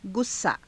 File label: "gussaa", Hindi